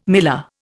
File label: "miller", Indian